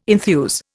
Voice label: "enthuse", Indian